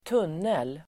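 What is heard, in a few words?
"tunnel", Swedish; Uttal: [²t'un:el]; tunnel substantiv, tunnel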